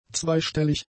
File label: "zweistellig", German; adjectives